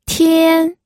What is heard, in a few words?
"tian1", pinyin